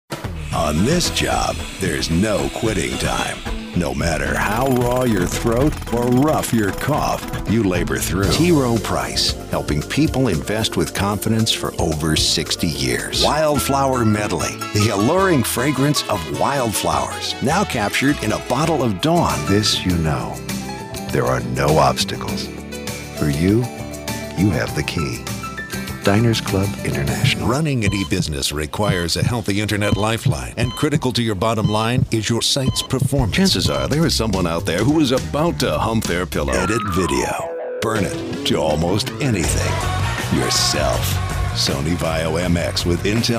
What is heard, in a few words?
Commercial